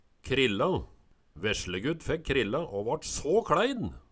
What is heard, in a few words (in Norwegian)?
krilla, Numedalsmål